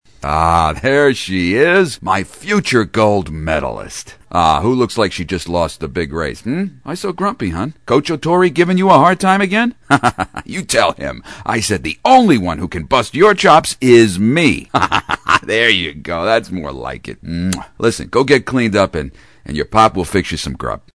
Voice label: Father, Gruff, Lost & Loving; animation

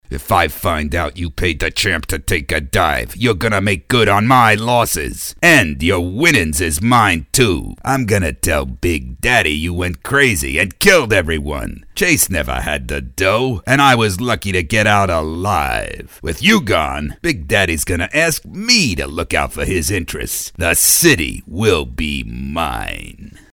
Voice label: MOBSTER - Mean Evil; animation

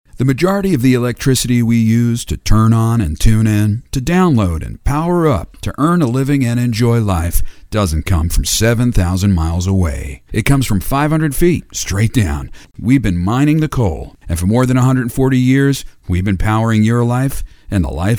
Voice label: ENERGY Company Read, commercials

